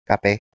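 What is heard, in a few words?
"kh2peh1", Proto-Indo-European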